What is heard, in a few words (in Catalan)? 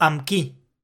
"AMB QUI", catala; Català de butxaca